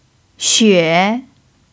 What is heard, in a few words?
"xue3", Chinese